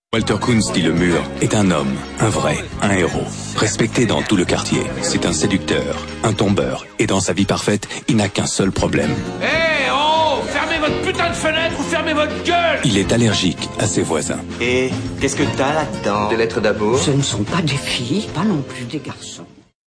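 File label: Voix Off Voice Over, bilingue Américain Français; Amitie presque parfaite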